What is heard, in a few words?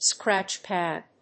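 "scratch pad", English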